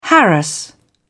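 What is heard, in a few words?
"harass", British English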